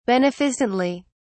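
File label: "beneficently", English, British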